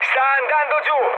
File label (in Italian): aircraft destroyed, mood med, speech it; War Thunder